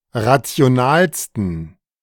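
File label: "rationalsten", German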